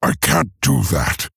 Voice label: Male Orc ERROR GENERIC; Thrall